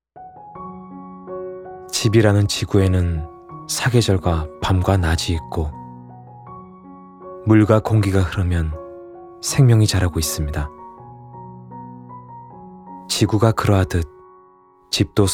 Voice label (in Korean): 20대 조용한 우주에 있는 듯 몽롱한 느낌